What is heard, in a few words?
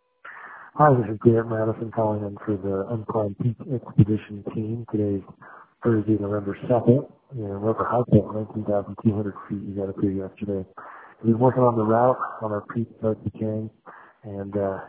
voicemail